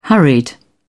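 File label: "hurried", English, British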